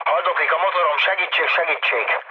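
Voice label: Hungarian damaged engine mood low, speech; War Thunder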